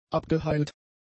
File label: "abgeheilt", German; adjectives